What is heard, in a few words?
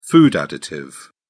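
"food additive", British English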